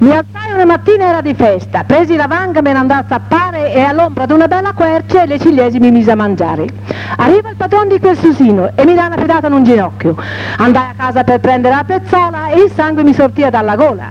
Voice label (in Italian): filastrocca; mi alzai una mattina, era di festa